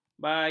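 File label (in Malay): "bayung", Malay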